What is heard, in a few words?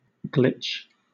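"glitch", British English; pronunciations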